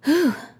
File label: healed, RPG Voice; RPG Voice Starter Pack